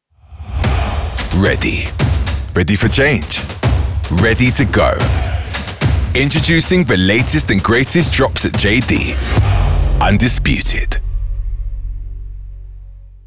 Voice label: male english commercial; jd sports